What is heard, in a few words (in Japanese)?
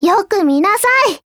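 skill, Japanese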